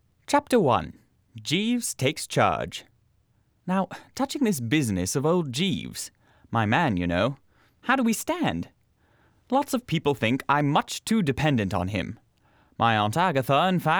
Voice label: Voiceover KSM Compression

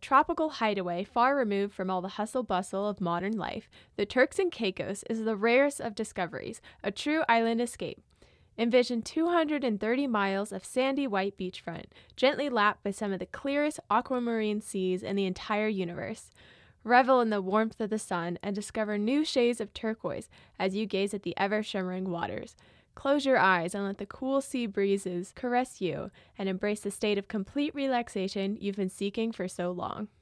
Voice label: Ribbon Mic; Voiceover Project

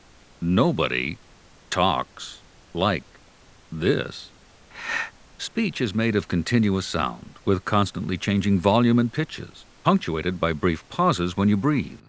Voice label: Voice Track Pink 5dB Less; noise Examples

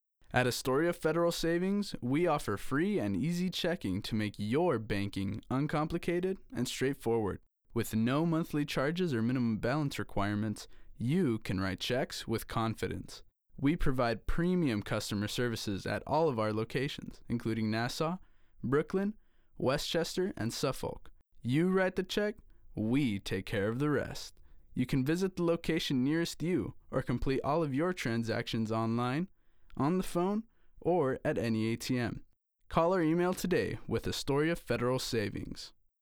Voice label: compressor, Voiceovers

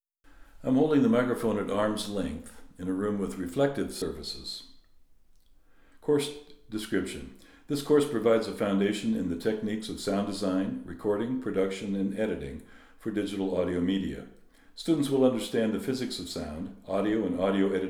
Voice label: Room Test Small Reflective; Room Sound Demo

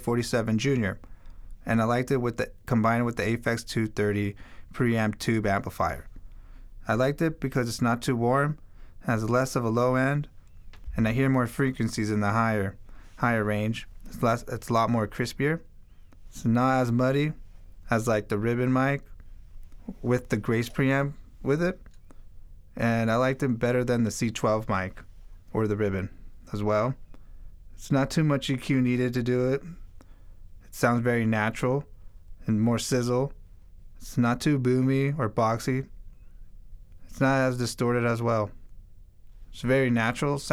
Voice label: Voiceover Report; Warm Audio

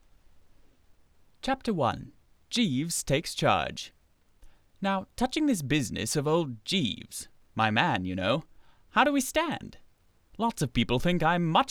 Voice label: Voiceover Warm Audio WA-47 JR

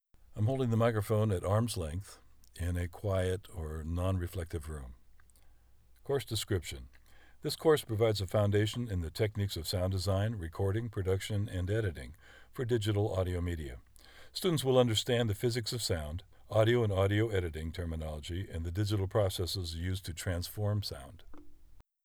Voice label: Room Test Padded Booth; Room Sound Demo

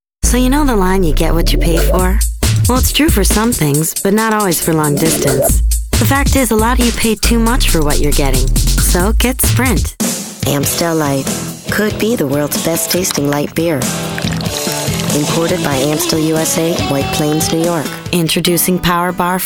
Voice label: Commercials, Voice Over

